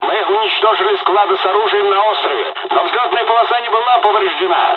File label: dialog, dialogs russian; War Thunder